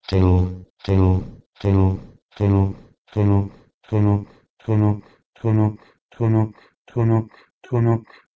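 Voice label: Proto-Indo-European tnh2u to Persian tunuk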